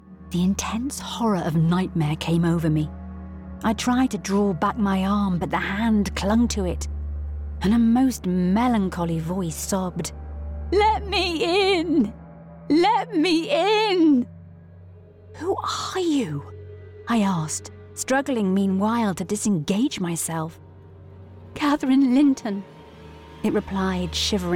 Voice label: Audiobook

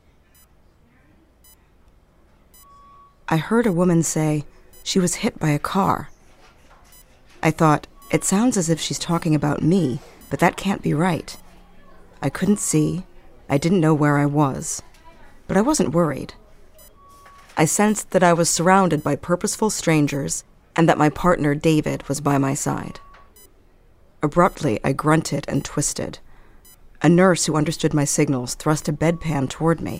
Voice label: Narrative Podcast

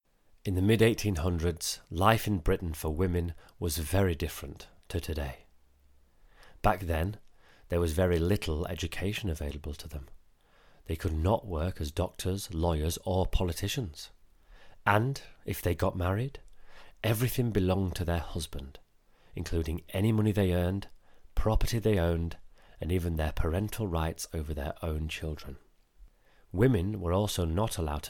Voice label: Home Studio Read; 20s-30s. Male. Studio. Yorkshire.

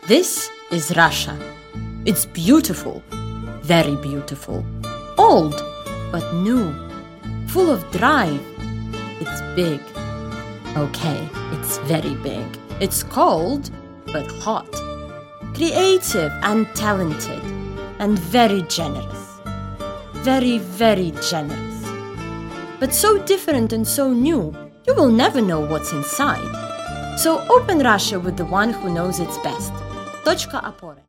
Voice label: English, Russian, Female, 20s-30s; Eastern European

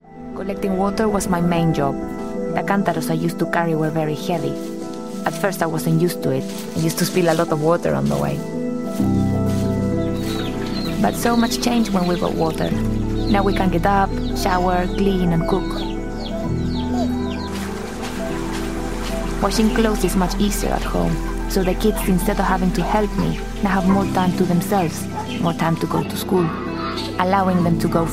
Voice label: English

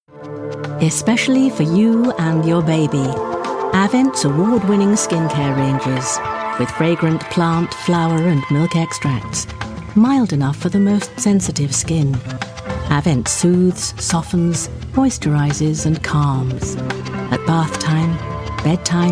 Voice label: RP

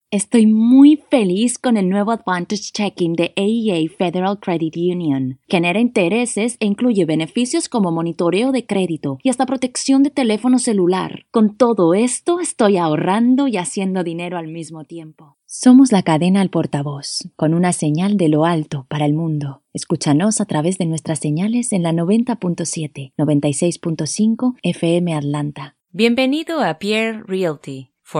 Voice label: Spanish

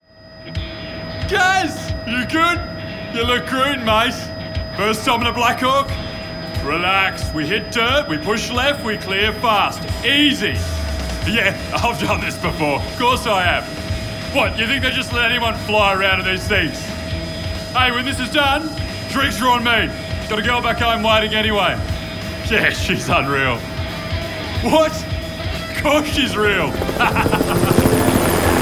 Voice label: COMPUTER GAMES AUS; 20s-30s; Male; Australian

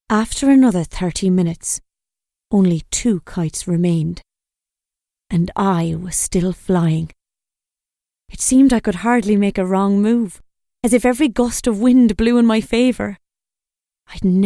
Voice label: Narrative read; Kite Runner

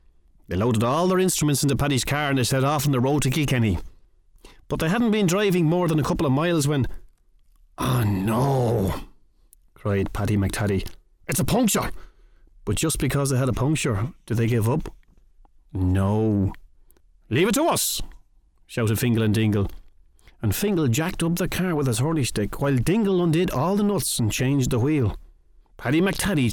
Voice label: Audiobook; regional accents

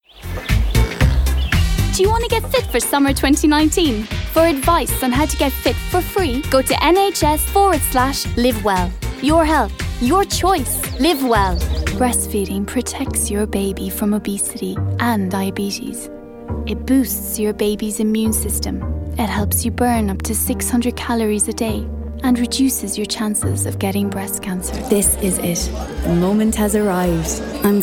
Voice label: Irish

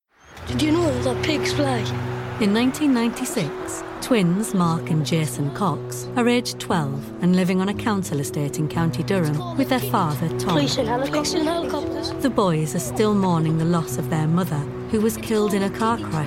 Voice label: documentary

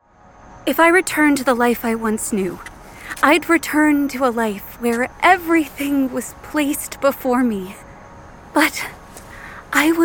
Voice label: Computer Games, american

